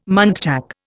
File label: "muntjac", Indian